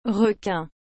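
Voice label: "requin", French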